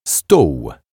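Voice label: "stol", Polish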